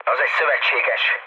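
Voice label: Hungarian player friendly fire mood low, speech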